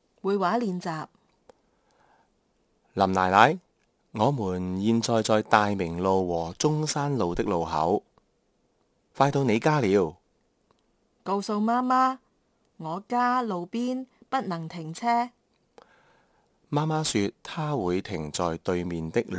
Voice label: conversation, Kantonese